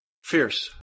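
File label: "fierce", English, male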